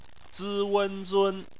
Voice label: "zun", chinese

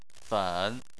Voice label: "fen3", Chinese

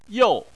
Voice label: "you4", Chinese

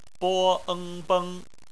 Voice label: beng, Chinese Phonetics

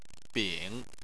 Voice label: "bing3", chinese

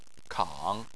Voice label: "kang3", Chinese

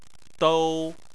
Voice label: "dou1", Chinese

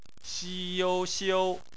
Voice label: "xiu", Chinese

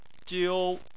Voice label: "jiu1", Chinese

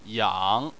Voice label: "yang3", Chinese

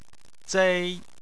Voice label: "zei1", Chinese

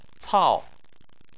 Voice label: "cao4", chinese

